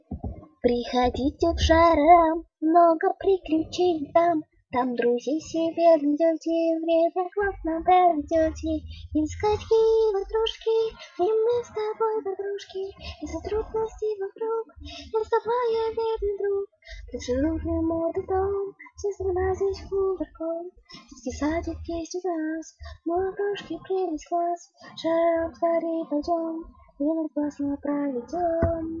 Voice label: Funny Voice